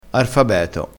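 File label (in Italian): "arfabeto", dialettale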